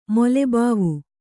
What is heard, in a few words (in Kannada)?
"ಮೊಲೆಬಾವು", Kannada